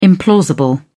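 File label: "implausible", British English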